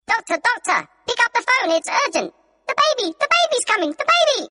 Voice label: funny doctor calling